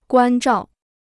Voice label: "关照", Chinese